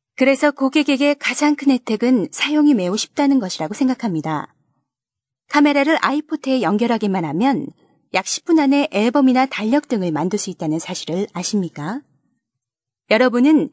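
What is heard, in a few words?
korean female voice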